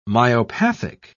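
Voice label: "myopathic", British English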